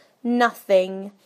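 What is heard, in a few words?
"nothing", British English; PictureDictionary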